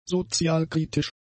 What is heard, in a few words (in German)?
"sozialkritisch", german; adjectives